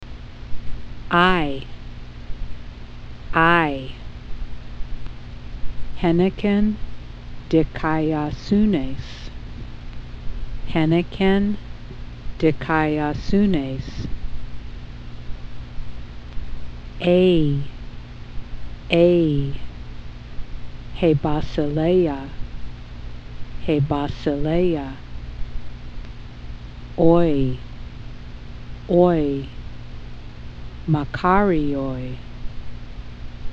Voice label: Greek Diphthongs